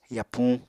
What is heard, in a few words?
"yapuñ", Apatani